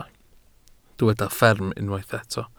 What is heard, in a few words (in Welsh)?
"fferm" Welsh